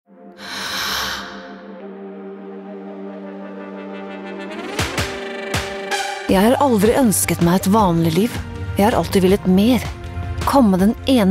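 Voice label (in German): Norwegian, Werbung Neutral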